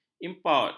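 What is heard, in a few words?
"import", Malay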